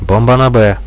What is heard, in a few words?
bomb here B, radio bot; Reallite